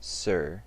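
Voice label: "sir" English, US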